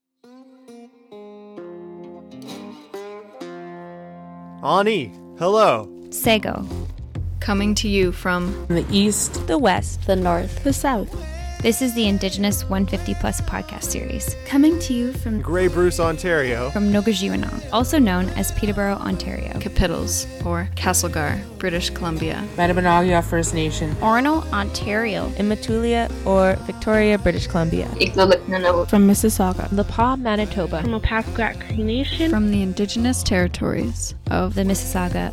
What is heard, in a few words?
Podcasts Trailer